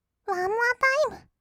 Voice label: ワンモアタイム, kawaii voice; 音ゲー

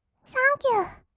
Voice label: "サンキュー", kawaii voice; ASMR; 色々なワンショット; 1200 kawaii voice!!

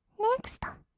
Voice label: "ネクスト", kawaii voice; 音ゲー

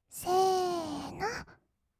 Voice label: せーーーの, kawaii voice; 音ゲー

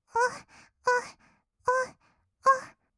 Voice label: おっおっ, kawaii voice; ASMR; 合いの手; 1200 kawaii voice!!

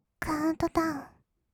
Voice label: カウントダウン, kawaii voice; ASMR; 1200 kawaii voice!!; 音ゲー

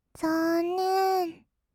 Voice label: "ざーんねーん", kawaii voice; ASMR; 色々なワンショット

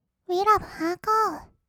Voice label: うぃらぶはーこー, kawaii voice; ASMR; 1200 kawaii voice!!; 音ゲー

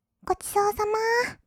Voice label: "ごちそうさま", kawaii voice; 挨拶

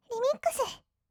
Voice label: "リミックス", kawaii voice; ASMR; 1200 kawaii voice!!; 音ゲー

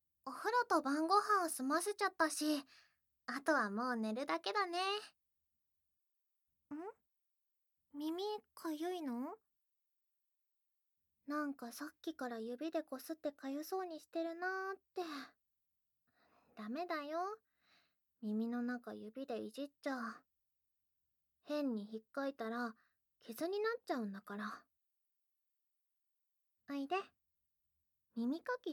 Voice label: 小悪魔耳かきの時間だよ♪, ASMR

